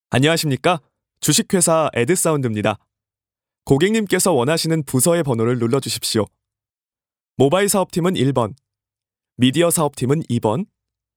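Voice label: Korean, male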